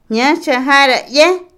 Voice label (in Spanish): llevar en la mano, Otomí, mujer; Movimiento; Vocabulary; INALI